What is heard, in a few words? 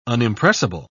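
"unimpressible", British English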